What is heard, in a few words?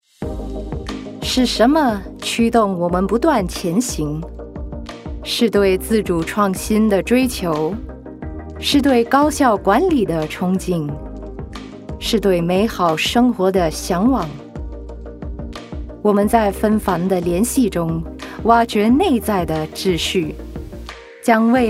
Mandarin